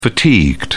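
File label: "fatigued", British English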